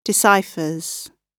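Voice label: "deciphers", British English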